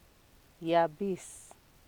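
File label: "yabis", Nigerian Pidgin, female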